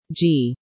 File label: "G", female